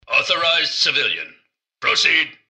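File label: hello, robot; rivetcity; mrgutsy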